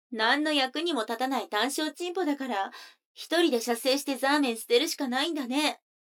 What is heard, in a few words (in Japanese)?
女の子 台詞, ASMR